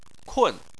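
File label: "kun", Chinese, fourth tone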